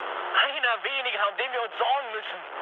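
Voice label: German player killed enemy mood high, speech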